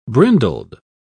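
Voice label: "brindled", British English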